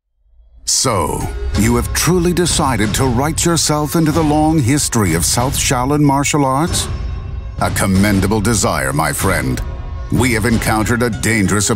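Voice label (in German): American English, Cool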